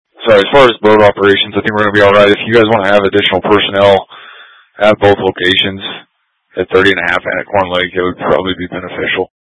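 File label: Incident Tac 1, Scanner audio recording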